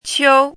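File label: "qiu", pinyin, first tone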